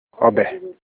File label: soup, Olukumi